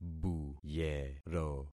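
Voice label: "buyero", concatenated syllables; Affixing experiment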